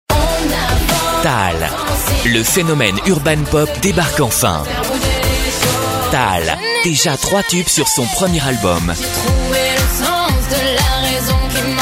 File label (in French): vendeur jeune, voix off homme